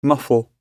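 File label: "muffle", English; oxford api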